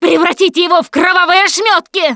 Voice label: battlecry tank, russian, Survivor Voice Producer